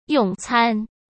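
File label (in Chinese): "用餐", Mandarin Chinese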